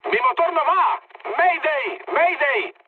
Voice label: damaged engine, mood high, speech; War Thunder